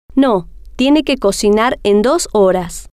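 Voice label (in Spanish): tiene Que Cocinar Dos Horas, spanish, female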